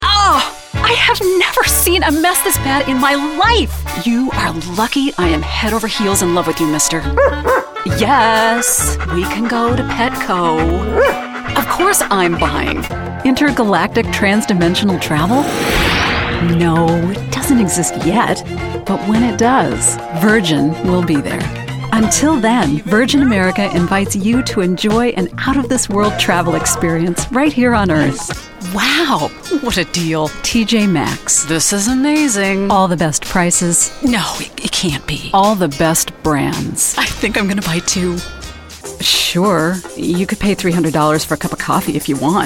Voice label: Commercial